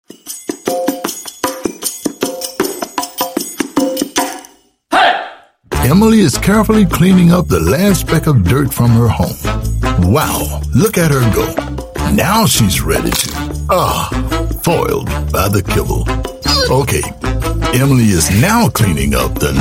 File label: US English; Dog Feeder